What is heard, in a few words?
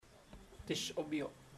I work, chamacoco; Puerto Diana